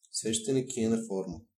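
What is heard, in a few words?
tealight, Bulgarian; household; tuxpaint-stamps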